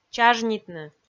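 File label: "чажнитны", Komi, female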